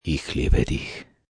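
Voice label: I Love You German M, male, German; Endearment, foreing; Talking Sound Effects; Sound Effects:Voice; VoiceBox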